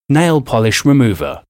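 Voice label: "nail polish remover", English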